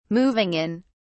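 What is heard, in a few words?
"moving in", British English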